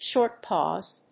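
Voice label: "short pause", English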